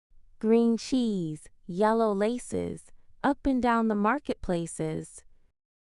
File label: fem us; Green Cheese